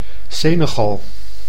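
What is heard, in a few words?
"Senegal", Dutch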